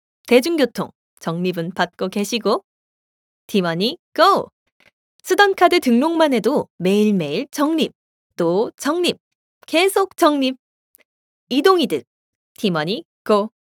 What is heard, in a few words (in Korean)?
성우 밝고 스마트한